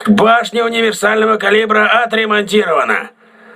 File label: voice message auxiliary caliber turret repaired, crew ships russian